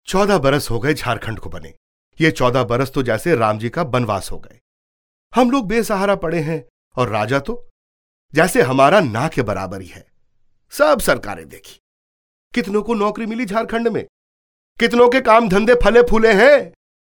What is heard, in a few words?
hindi voiceover locutor hindi india; locutor hindú